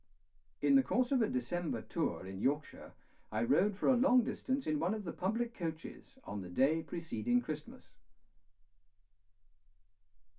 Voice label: male speech english